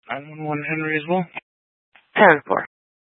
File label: Sheriffs Office, scanner; Maricopa County; D1 Southeast; Chandler-Mesa Simulcast